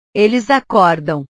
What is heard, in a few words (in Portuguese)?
"eles acordam", portugues